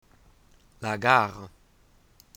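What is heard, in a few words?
"train station", French; tourism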